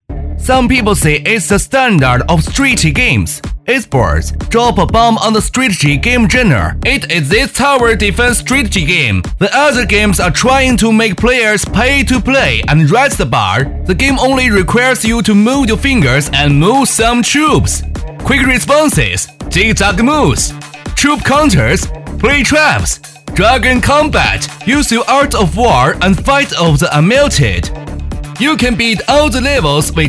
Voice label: 英文信息流

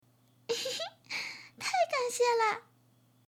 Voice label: 女童样音

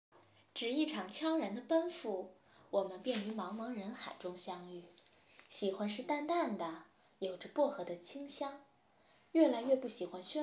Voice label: 治愈声音, 随和，有阅历，有感情的声音; 只一场悄然的奔赴

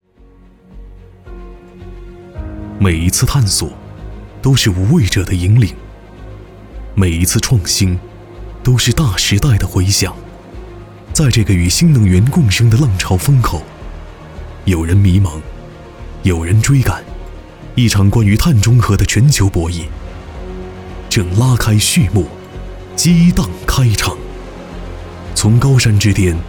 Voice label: 宣传; 无畏者

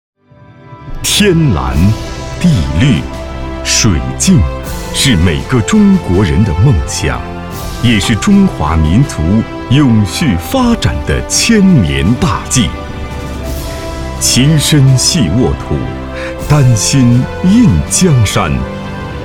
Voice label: 高亢激昂起伏变化专题

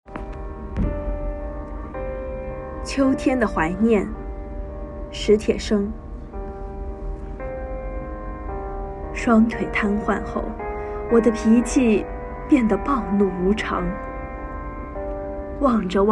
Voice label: 名篇朗诵片段; 秋天的怀念

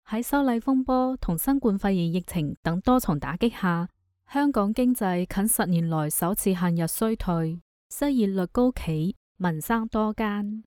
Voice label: 粤语

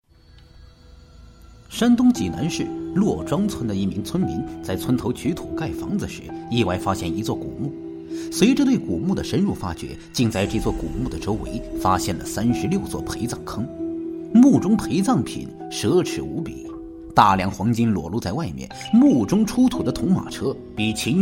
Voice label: 悬疑代入快节奏; 小太阳

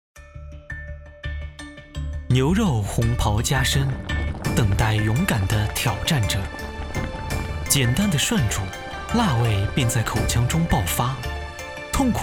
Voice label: 纪录片美食温柔活力; 真人商业配音 纪录片-美食-温柔活力

